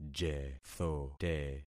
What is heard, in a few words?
"jethote", concat syllables; Affixing experiment